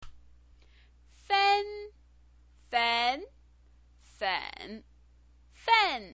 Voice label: "fen", pinyin

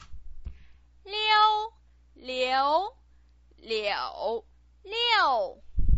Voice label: "liu", Chinese pinyin

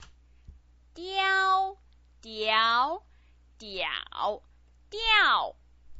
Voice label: "diao", Chinese pinyin

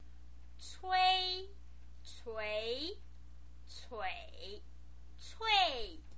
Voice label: "cui", Chinese pinyin

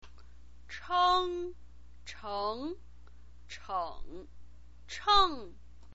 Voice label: "cheng", chinese pinyin

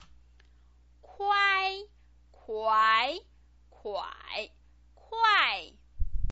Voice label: "kuai", Chinese pinyin

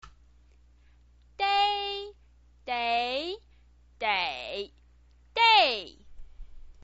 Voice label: "dei", chinese pinyin

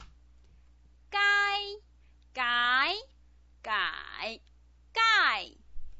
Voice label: "gai", Chinese pinyin

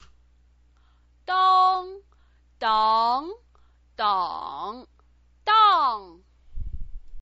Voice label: "dang", Chinese pinyin

